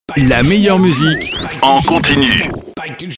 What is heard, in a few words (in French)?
la meilleure musique en continu tag